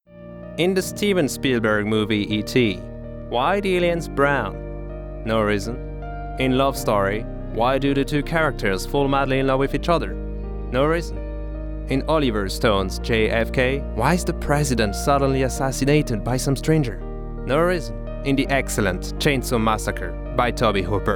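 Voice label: French English US, male, narration, accent of france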